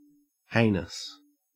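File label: "heinous", Australian English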